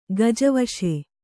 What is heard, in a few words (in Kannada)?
"ಗಜವಶೆ", Kannada